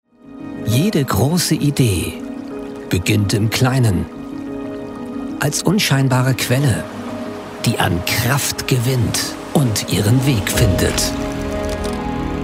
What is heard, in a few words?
german corporate videos